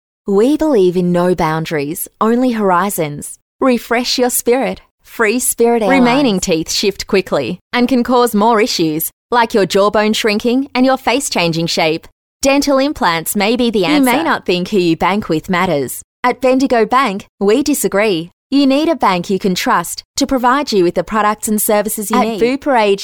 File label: Soft Sell